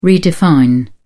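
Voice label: "redefine", English, British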